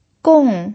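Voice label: "gong" fourth tone, pinyin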